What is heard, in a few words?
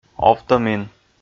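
"овтӧмин", Komi, male; kpv audio dict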